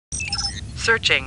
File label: Computer Voice; Star Trek; StarTrekLCAR